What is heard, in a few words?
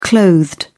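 "clothed", British English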